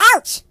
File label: Ouch, voices Russian; hedgewars